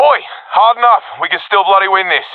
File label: round losing, professional; vo; Counter Strike Model Pack; CS:GO